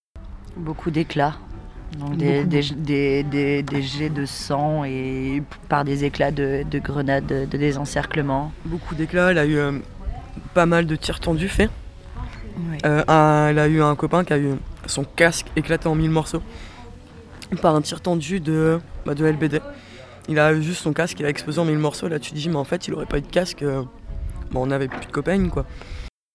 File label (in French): TEMOIGNAGE casque explose tir lbd